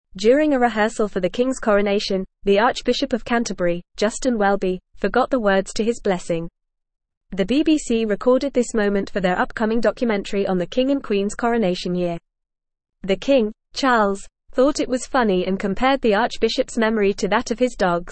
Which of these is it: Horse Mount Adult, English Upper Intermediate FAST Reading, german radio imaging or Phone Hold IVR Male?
English Upper Intermediate FAST Reading